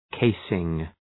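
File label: "casing", English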